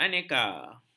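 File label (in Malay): "aneka", Malay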